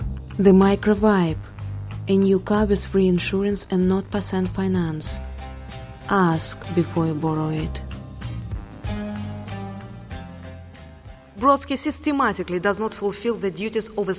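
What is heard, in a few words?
Russian, English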